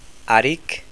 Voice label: to lead, maasai